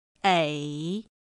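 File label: "ěi", Chinese; pinyin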